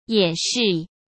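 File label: "演示" Mandarin Chinese; chinese flashcards; hsk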